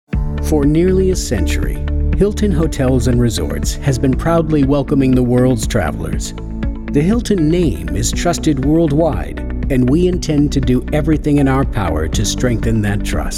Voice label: Explainer video Narration; Hilton Hotels